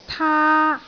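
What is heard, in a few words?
"taa1", Cantonese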